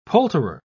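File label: "poulterer", British English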